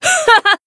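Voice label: Voice attack, Japanese; minalca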